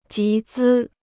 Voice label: "集资", Chinese

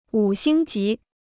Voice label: "五星级", Chinese

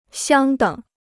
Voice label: "相等", Chinese

